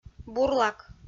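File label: "бурлак", Komi-Zyrian, female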